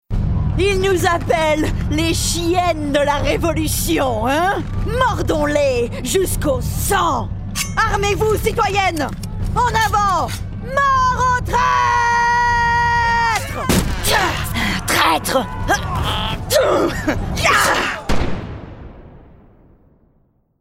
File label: French Gaming Revolutionary